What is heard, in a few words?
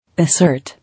"Assert", English